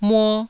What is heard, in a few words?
"muo1", Taiwanese